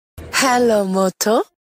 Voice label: hello moto female ringtone